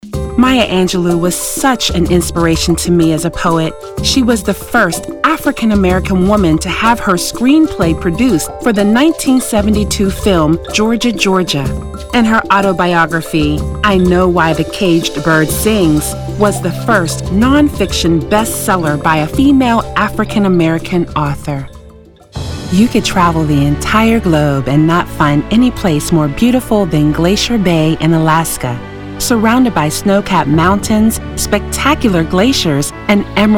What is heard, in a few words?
narration